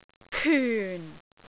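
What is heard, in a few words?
"kyun4", Cantonese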